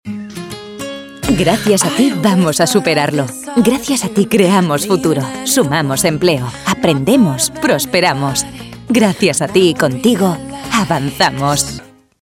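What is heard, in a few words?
spanish female commercial; voice demos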